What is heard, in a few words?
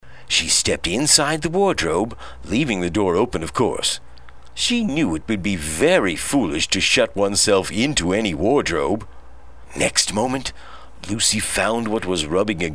Narnia Narration